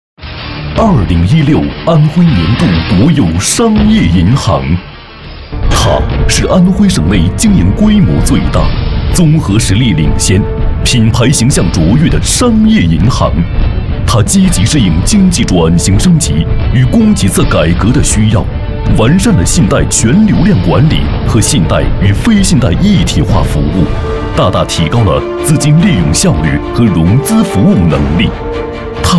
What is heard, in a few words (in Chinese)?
男 颁奖词; 金麒麟